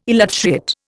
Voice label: "elutriate", Indian